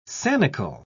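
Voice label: "sanicle", British English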